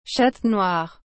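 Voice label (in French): "chatte noir", French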